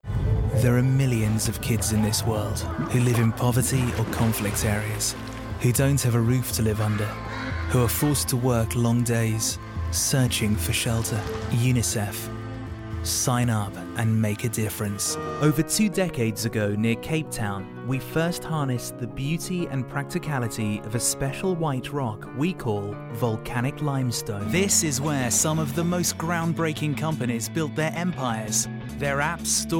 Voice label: corporate